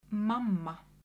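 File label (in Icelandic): "mamma", Icelandic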